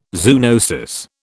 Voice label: "zoonosis", British

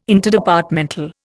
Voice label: "Interdepartmental", Indian

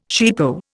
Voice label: "cheapo", Indian

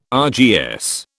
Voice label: "RGS", British

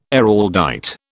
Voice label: "Araldite", British

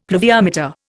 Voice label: "pluviometer", Indian; Dictionary

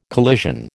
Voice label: "collision", American

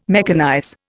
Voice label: "mechanize", Indian

